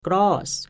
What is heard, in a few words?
"Graas", Pennsylvania Dutch; g- (beginning); g in good